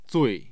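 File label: "zui4", Mandarin Chinese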